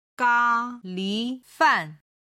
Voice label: "galifan", Chinese